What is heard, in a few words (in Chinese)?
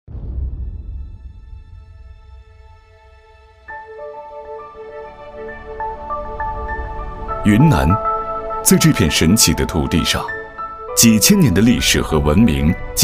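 专题企业大气; 云南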